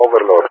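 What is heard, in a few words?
"Overlord", English, GREECE; CallSign; Allied Flight; DCS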